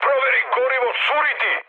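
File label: Serbian tank damaged mood high; speech; War Thunder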